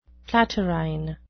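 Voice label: "platyrrhine", English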